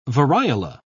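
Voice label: "variola", British English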